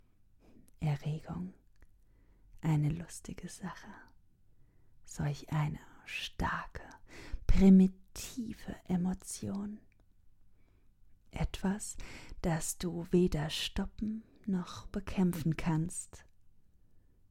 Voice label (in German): individuelle erotische Hypnose Hörbeispiel; Erotica Hypnotica